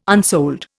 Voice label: "unsold", Indian